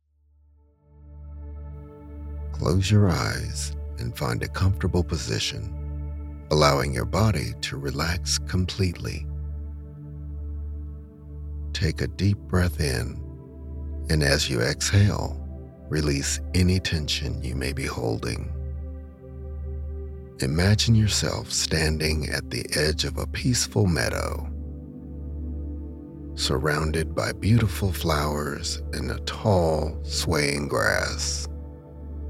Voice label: Meditation